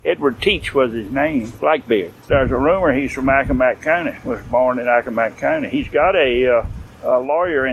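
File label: USA Virginia Oancock Male 50s Monologue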